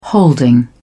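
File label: "holding", British English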